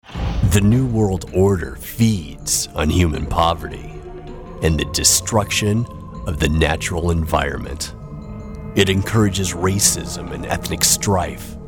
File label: US, narration